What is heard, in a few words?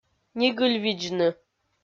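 "нигыльвидзны", Komi, female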